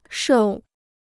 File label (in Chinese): "瘦", Chinese